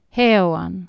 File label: "heawan", Anglo Saxon